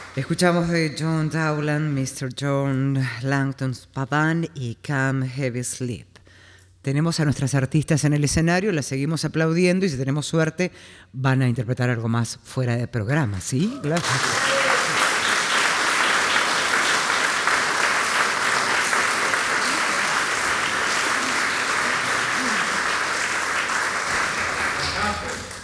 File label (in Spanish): locutora